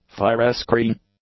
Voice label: "firescreen", English